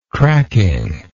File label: "cracking", English